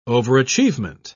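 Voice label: "overachievement", British English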